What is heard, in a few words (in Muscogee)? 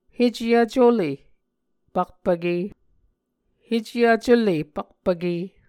"hecvculepakpvke", Muscogee